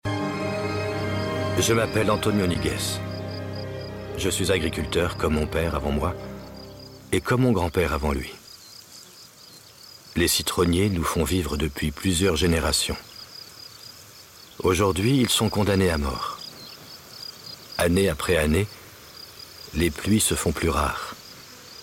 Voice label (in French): H documentaire; FR; Français